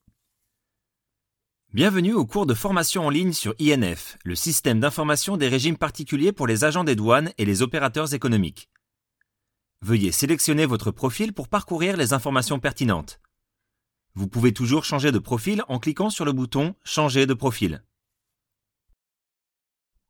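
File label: FR General, French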